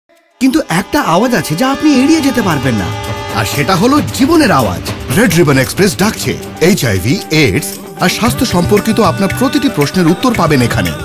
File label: Radio Bengali